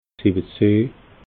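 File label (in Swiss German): "siwwezehn", Alsatian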